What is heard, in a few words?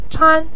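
"chan" Mandarin Chinese, neutral tone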